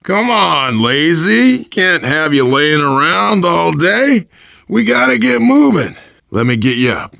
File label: revive friend, voice, biker; Left 4 Dead 2; survivor